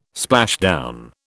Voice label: "splashdown", British